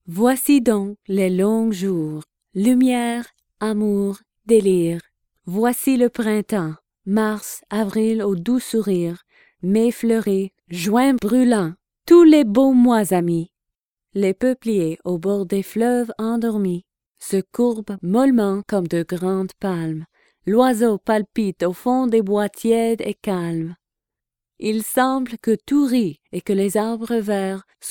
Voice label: French, narrators voice sample